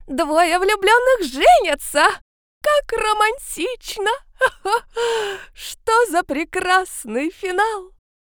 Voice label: sentimentalnaya tyotushka

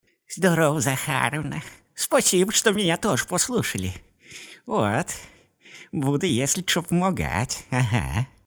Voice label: novyie russkie babki

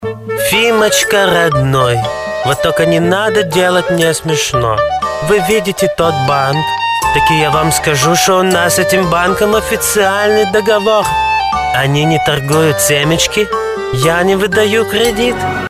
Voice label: odessit evrej

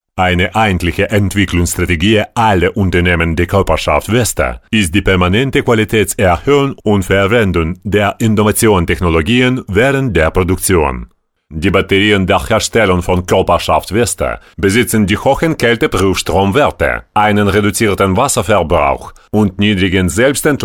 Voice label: lang nemeckij

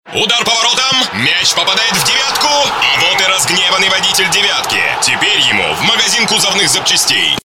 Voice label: futbolnyj kommentator

